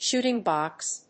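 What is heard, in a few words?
"shooting box", English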